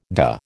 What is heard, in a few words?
"dah", American